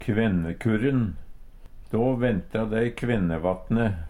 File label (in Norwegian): kvennekurr n, Numedalsmål; Merkjedag 1.september; Då venta dei kvennevatne.; Uttrykk; Tida (dagen, året, merkedagar)